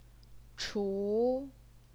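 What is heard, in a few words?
"chu", second tone, Chinese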